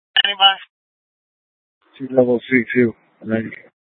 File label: Public Transit System Light Rail Maintenance, scanner; Valley Metro; Tempe; Simulcast F- Tempe